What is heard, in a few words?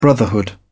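"brotherhood", British English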